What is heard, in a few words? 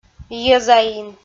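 "йӧзаин" Komi, female; audio dict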